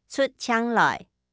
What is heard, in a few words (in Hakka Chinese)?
擦乾淨, 客語